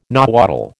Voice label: "Nahuatl", American